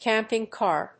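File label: "camping car", English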